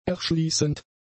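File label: "erschließend", German; adjectives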